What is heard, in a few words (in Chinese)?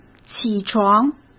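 "起床", Mandarin Chinese; hsk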